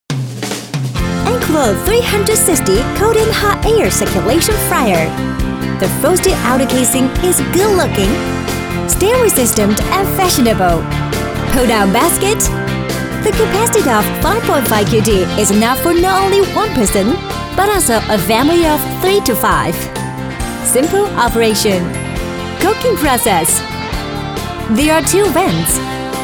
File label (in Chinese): 女 英语 欢快时尚配音